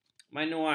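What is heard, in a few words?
"manual", Malay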